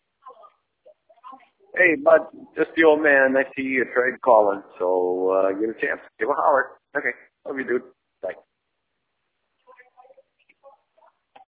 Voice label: voicemail